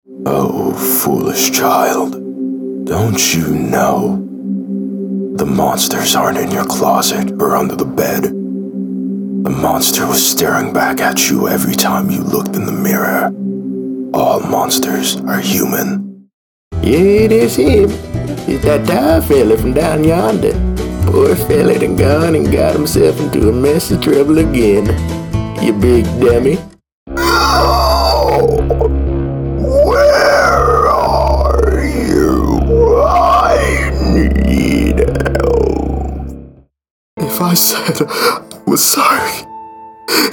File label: Character